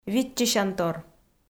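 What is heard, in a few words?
"виччысянтор", Komi, female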